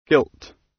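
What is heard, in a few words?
"guilt", British; Crime and punishment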